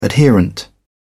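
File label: "adherent", British English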